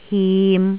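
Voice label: "him3", Jyutping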